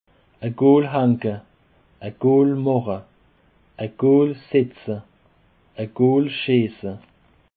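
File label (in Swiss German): "gool", Alsatian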